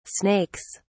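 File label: "snakes", British English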